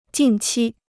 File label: "近期", Chinese